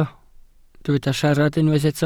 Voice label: "siarad", Welsh